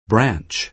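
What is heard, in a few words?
"branch", American English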